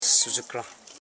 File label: "su dgu kran", Koro house parts